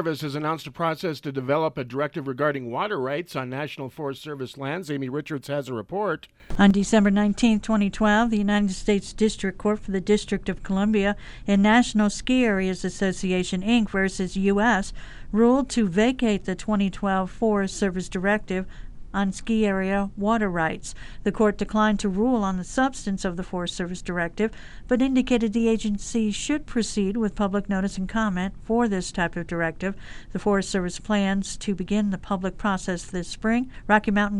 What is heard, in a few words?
wyoming radio news